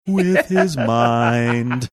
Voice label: singsong